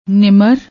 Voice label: "nimar", Punjabi